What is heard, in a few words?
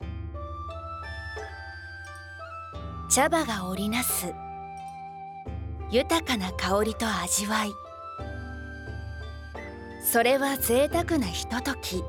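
narration tea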